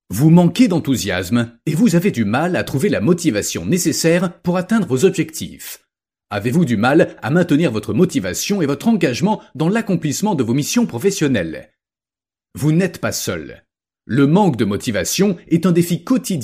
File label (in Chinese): 法语男声